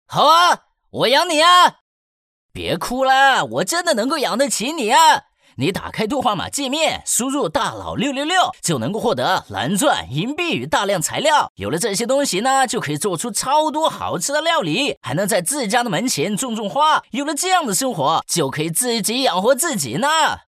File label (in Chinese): 男 模仿; 声音百变; 幽默搞怪; 周星驰